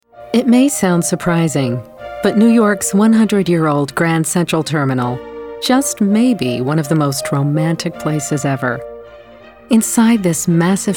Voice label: Narration